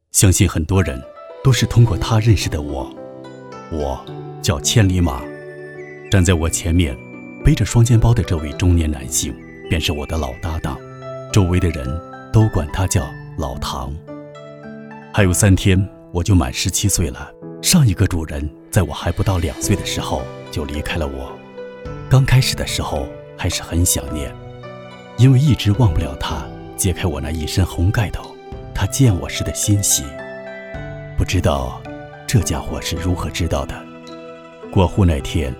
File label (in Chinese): 男 纪录片; 千里马